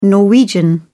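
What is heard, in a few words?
"norwegian", British English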